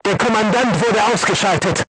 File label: voice message commander contused, crew tanks german